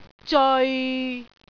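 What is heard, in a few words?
"zeoi6", Cantonese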